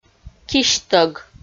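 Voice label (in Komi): "кисьтӧг", Komi, female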